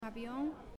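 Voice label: "Avion", Spanish, female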